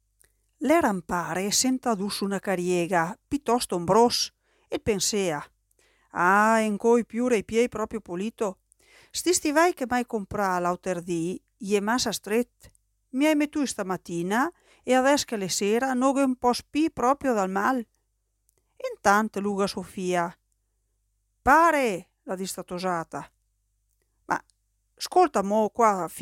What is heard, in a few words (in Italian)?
Cencenighe, Cencenighe Agordino, F; Stimolo 5 - Cencenighe Agordino (F, 1968)